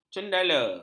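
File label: "cendala", Malay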